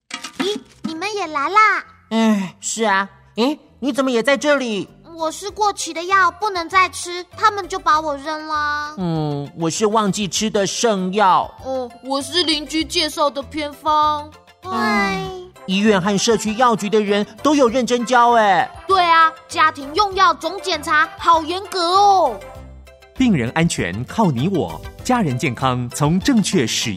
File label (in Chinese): 家庭用藥總檢查-國語版